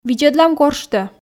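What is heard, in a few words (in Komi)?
видзӧдлам горштӧ, Komi, female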